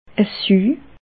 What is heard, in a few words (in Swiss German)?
"suu", alsace